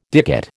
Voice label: "dicot", American